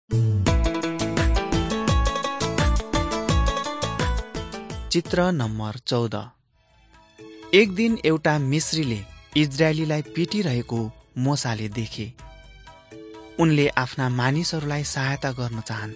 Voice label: mighty men of god nepali